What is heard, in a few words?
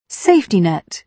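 "safety net", British English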